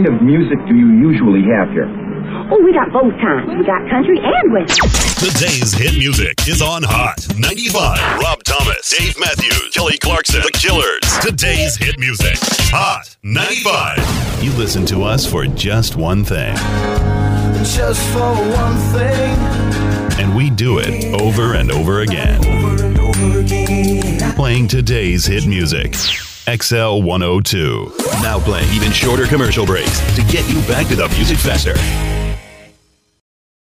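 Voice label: chr, radio imaging, men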